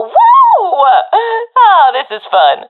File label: cheer, professional fem; vo; Counter Strike Model Pack; CS:GO